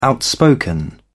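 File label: "outspoken", English, British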